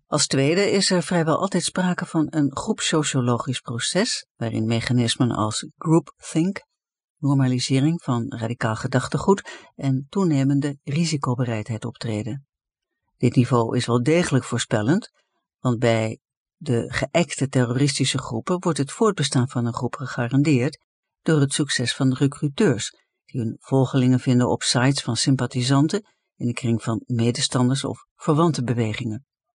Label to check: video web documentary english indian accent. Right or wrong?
Wrong